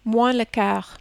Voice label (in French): "moins le quart", French